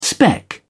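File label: "spec", British English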